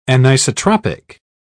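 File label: "anisotropic", British English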